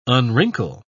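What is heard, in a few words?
"unwrinkle", English, British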